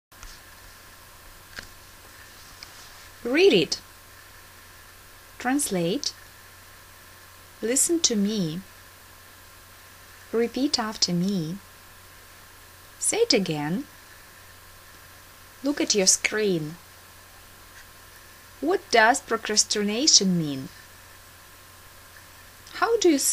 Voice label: commands in English